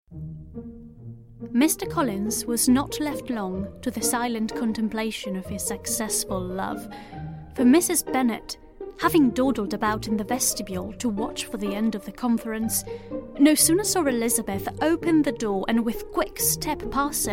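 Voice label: female voice, English accent, Italian; GoLocalise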